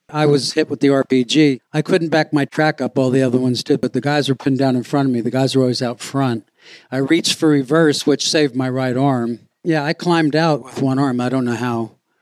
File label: plosives repair; AUDIO ENGINEERING AUDIO REPAIR; EQ